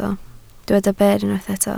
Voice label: "ber", Welsh

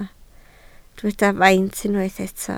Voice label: "faint", Welsh

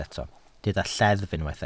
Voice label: "lleddf", Welsh

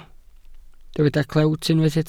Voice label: "clewt" Welsh

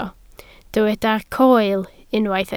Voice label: "coel", Welsh

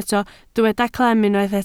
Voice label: "clem", Welsh

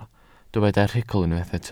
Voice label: "rhugl", Welsh

